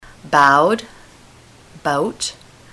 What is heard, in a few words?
bowed bout, ontario